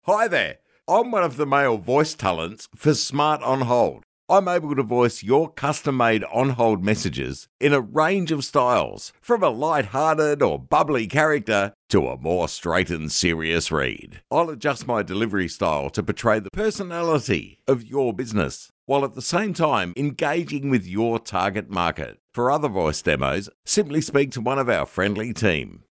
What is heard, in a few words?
MALE